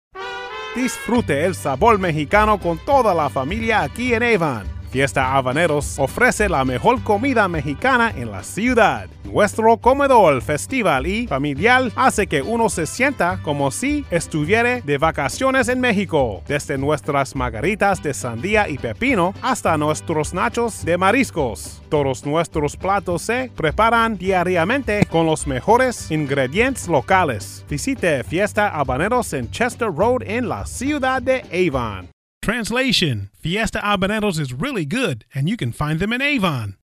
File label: spanish version; FIESTA HABANERO